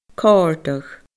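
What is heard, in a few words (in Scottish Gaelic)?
"cordadh", Scottish Gaelic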